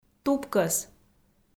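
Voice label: "тупкӧс", Komi, female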